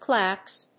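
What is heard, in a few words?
"claps", English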